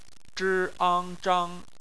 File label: "zhang", Chinese